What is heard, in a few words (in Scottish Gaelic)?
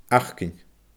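"acain", Scottish Gaelic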